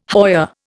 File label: "hoya", Indian